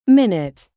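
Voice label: "present", noun, British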